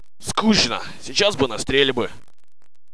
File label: monologue, soldier; svencoop; paranoia